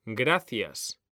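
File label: "gracias", Spanish, male; mekanta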